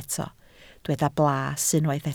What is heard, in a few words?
"blas", Welsh